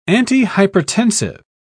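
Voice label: "antihypertensive", British English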